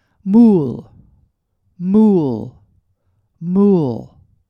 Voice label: "Moule", pronunciation